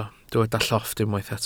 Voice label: "llofft", welsh